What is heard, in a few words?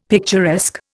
"picturesque", Indian; Dictionary